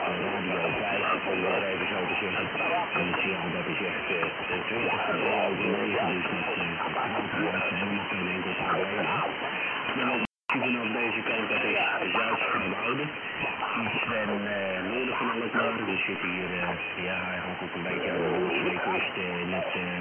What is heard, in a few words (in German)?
Amateurfunk LSB; 7082khz